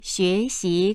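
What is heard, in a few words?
"xue2xi2", Chinese, female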